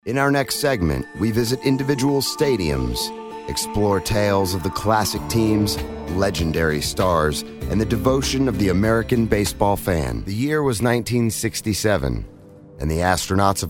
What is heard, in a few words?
Narration